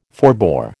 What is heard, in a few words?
"forbore", American